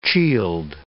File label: "chield", English